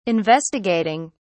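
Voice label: "investigating", British English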